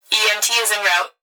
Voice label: Emergency Human Female Voice Fire Dispatch EMT Is In Route; emergency effects